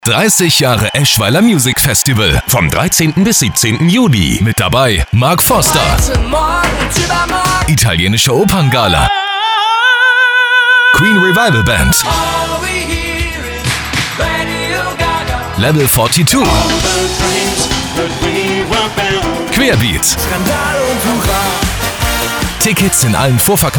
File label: Radiospot; EMF2022